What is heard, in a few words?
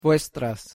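"vuestras", Spanish; espanol en el mundo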